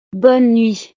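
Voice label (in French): "bonne nuit", French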